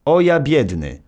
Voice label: narr; Szkola Koziolka; CyberMycha 2003 October